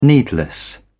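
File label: "needless", British English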